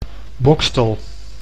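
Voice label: "Boxtel", Dutch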